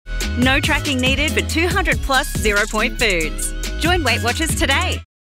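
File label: commercial, Australian, upbeat, smiley; gal/guy next door; WEIGHTWATCHERS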